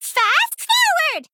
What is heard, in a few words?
Female Gnome Attack